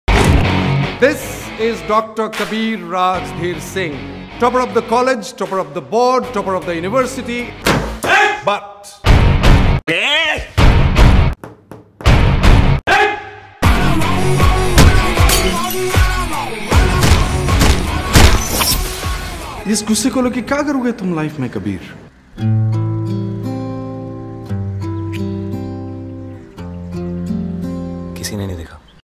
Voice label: Mass Dialogue Tone; Kabir Singh